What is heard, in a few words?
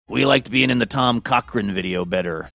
gruff